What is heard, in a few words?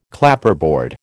"Clapperboard", American